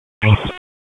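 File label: Raleigh Police Southeast District Dispatch, p25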